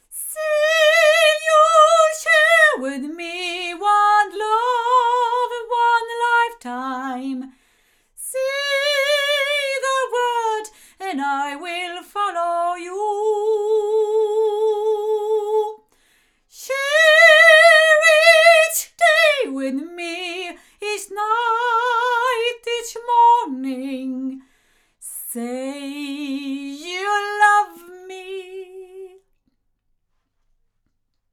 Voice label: Sontronics Orpheus omni female, microphones recordings